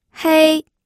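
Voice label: "hei", Mandarin Chinese, first tone; pinyin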